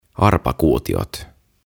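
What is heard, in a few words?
"Arpakuutiot", "Dice", Finnish, English; OTAVA KONSERNI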